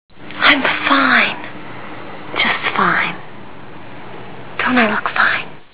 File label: lady; kong